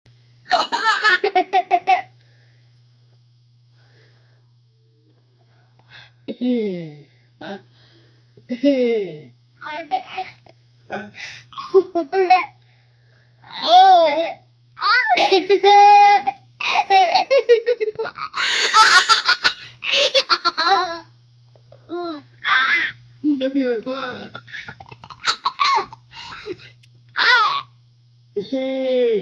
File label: 9 year old african, family